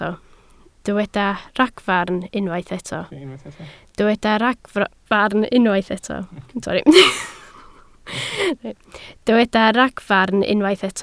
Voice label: "ragfarn", Welsh